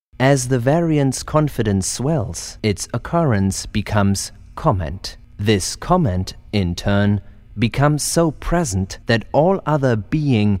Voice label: male, English with German accent, narration